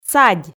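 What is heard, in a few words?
"садь", Komi, female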